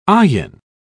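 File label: "ayin", British English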